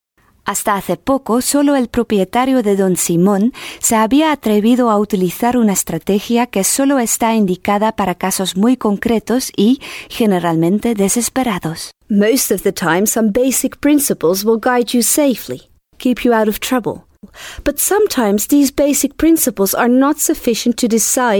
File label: foreign languages